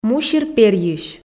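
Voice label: "мусир перйысь", Komi, female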